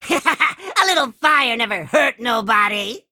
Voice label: Male Imp